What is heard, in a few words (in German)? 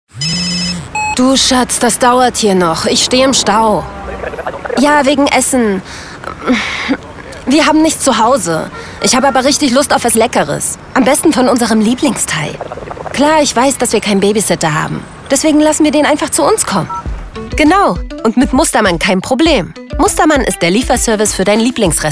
Spot Lieferservice; LOFT VOICES